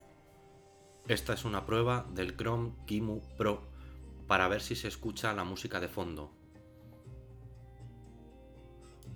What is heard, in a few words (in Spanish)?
Grabación con música de fondo